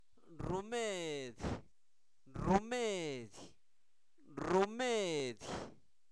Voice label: Zapoteco Albarradas, santo domingo albarradas; INALI; enfermedades; remedio

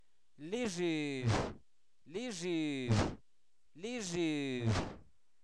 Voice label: alacrán, Zapoteco, santo domingo albarradas; animales; INALI

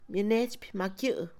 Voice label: Mixe, Mujer; llevar en la mano; Movimiento; INALI

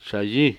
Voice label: "buitre", Cucapá; Animales

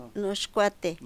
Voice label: "frente", Mexicano Guerrero; INALI

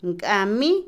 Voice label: legumbres, Otomí, mujer; Comida; INALI

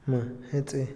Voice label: "torre", Otomí, hombre; INALI

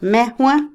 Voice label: "pescador", Otomí, mujer; Vocabulary; INALI

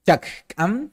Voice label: naranja - "Chak k'an", Maya

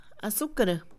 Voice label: caña de azúcar, Mixe, Mujer; INALI

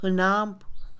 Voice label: Mixe, Mujer; decir; Habla; INALI

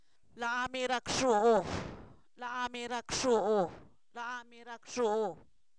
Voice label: está enfermo, Zapoteco, san miguel albarradas; INALI

